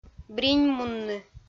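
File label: "бриньмунны", Komi, female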